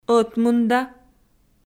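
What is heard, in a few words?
"ӧтмында" Komi, female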